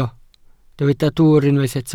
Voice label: "dwwr", Welsh